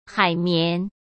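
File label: "海绵", Mandarin Chinese; chinese flashcards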